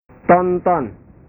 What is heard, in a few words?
"tonton", bahasa